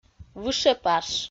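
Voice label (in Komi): "вышепаш", Komi-Zyrian, female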